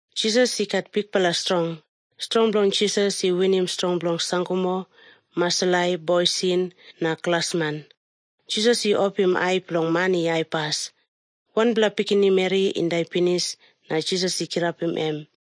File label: tok pisin; png nt drama; can god forgive me